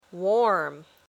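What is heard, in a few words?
"warm", English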